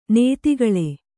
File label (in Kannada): "ನಿಧಿಗೊಳು", Kannada